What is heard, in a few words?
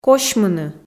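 "косьмыны", Komi, female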